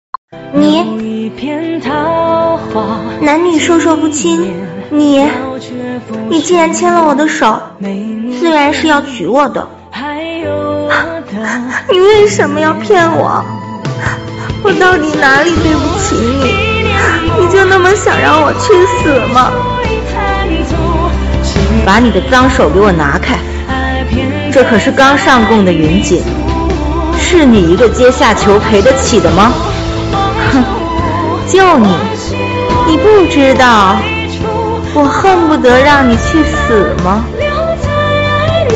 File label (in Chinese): 古风女情绪转化